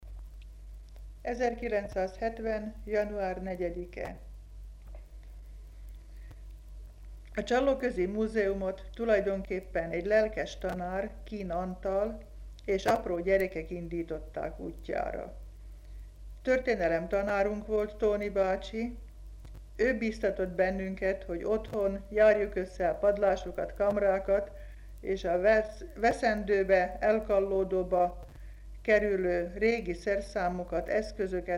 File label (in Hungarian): nyelvjaras gyujtesek